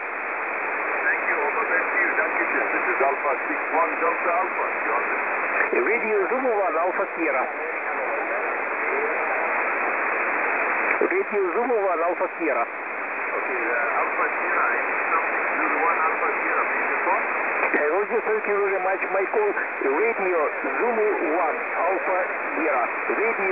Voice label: QSO 21276 kHz